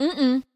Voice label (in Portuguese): Negaçao neutra